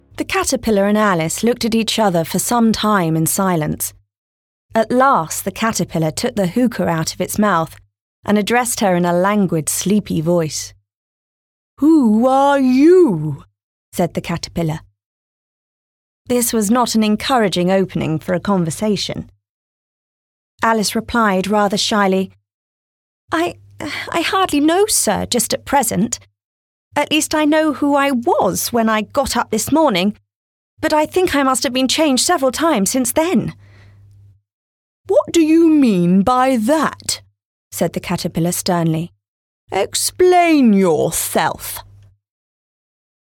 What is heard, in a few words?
Narrative